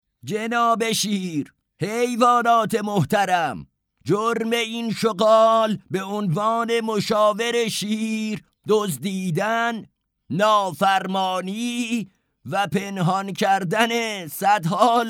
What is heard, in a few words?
dub